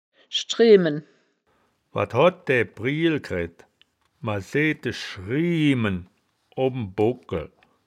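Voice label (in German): "schdre-men", Wittlicher Wörterbuch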